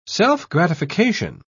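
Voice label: "self-gratification", British English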